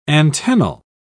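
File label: "antennal", British English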